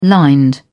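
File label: "lined", British English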